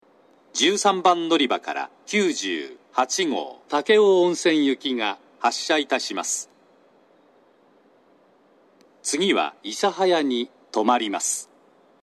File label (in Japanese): 長崎 西九州新幹線ホーム 自動放送, 13番のりば発車放送